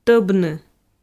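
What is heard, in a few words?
"тӧбны", Komi, female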